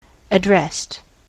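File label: "addressed", American English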